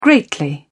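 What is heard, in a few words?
"greatly", British English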